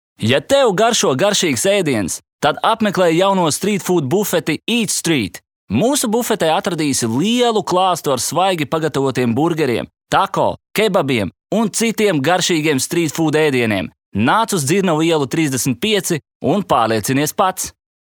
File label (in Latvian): Reklāma